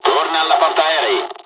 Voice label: mission fly, dialogs italian; War Thunder